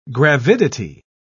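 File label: "gravidity", British English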